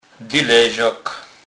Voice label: "dylezak", kurpiow

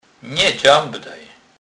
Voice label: "dzamdane", kurpiow

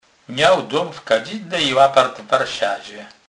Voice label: "lapart", kurpiow

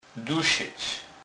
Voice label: "dusec", kurpiow

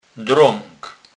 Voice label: "drag", kurpiow

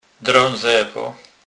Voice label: "drezewo", kurpiow

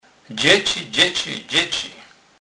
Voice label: "dzeci", kurpiow

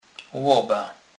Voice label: "loba", kurpiow

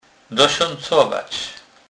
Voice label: "dosacowac", kurpiow

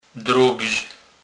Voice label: "drobz", kurpiow

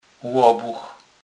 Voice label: "lobuch", kurpiow

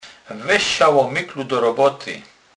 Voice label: "lomykel", kurpiow

